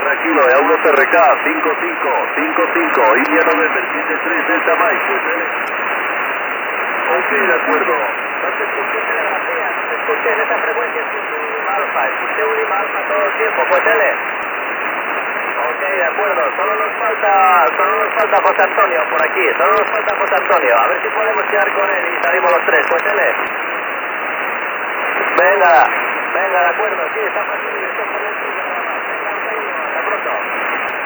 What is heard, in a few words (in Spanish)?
Satélite Radio Sputnik RS-12/13, estableciendo el contacto